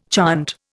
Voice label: "chant", Indian